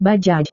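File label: "bajaj", Indonesian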